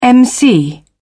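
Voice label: "emcee", British English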